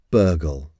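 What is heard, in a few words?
"burgle", English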